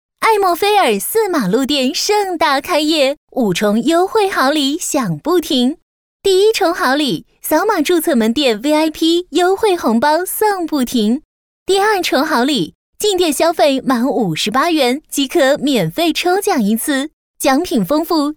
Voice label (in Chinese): 女 促销广告; 艾茉菲儿